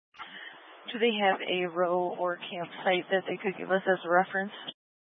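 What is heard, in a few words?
Incident Tac 3, Scanner audio recording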